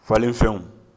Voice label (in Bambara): "falenfɛn", Bambara; nature